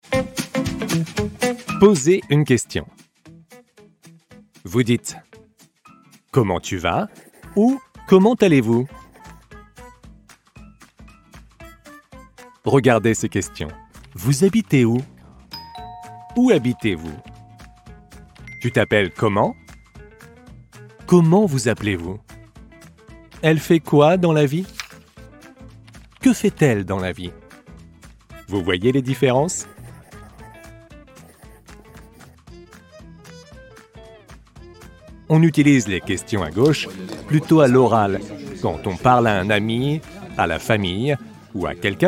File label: explainer; French; tv5 monde poser une question